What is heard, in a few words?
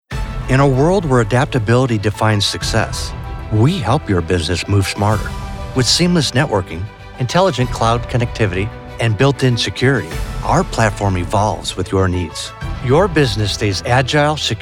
spot; Lumen